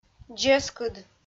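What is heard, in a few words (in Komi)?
"дзескыд", Komi, female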